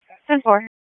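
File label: Raleigh Police Northeast District Dispatch, p25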